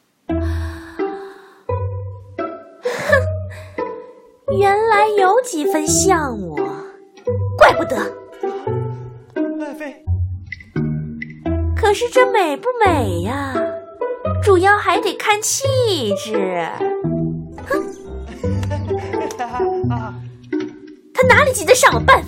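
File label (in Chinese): 可爱配音; 可爱杨贵妃配音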